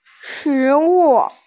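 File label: "shi2wu4", Chinese, female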